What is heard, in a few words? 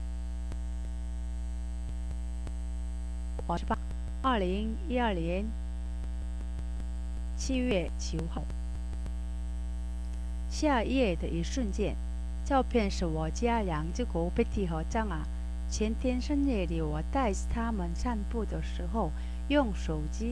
chinese